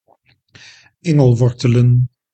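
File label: "engelwortelen", Dutch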